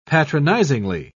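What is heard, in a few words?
"patronisingly", British English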